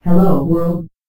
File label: filters, robot